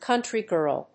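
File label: "country girl", English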